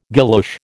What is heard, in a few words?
"guilloche", American